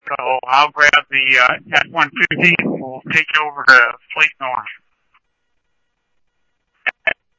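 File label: police department, scanner audio trunk; SCTSDLE PD - Tec ScottsdalePolice; H-Scottsdale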